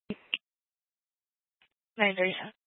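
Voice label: Fire Dispatch, Scanner audio recording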